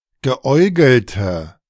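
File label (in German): "geäugelte", German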